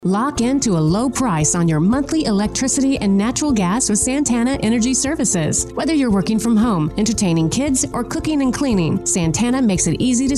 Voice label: Female; Santana Energy